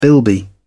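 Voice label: "bilby", British English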